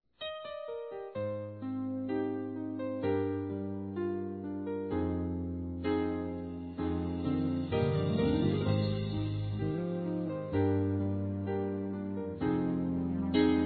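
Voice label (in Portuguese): Mensagem Para Pastor Feminino; Telemensagens; Telemensagens 2017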